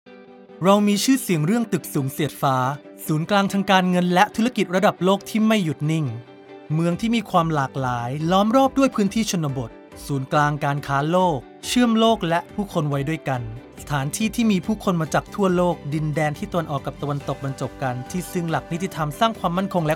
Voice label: Thai; BrandHK; TallStory